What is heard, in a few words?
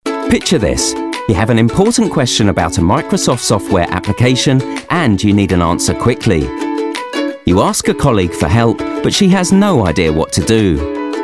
UK English; OASE